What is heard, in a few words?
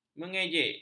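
"mengejek", Malay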